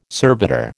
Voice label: "servitor", American

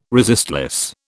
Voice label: "resistless", British

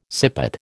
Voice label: "sippet", American

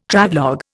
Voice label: "Travelogue", Indian

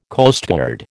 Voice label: "Coastguard", American

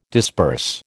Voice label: "disburse", American

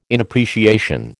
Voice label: "inappreciation", American

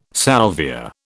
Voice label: "salvia", British

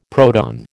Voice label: "proton", American

